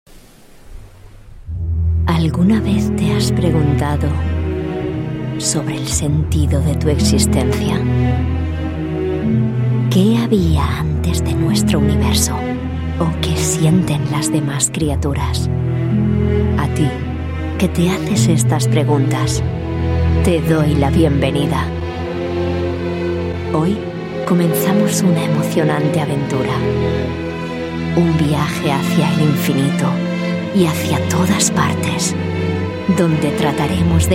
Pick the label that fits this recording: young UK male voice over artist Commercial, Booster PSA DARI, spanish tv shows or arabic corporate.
spanish tv shows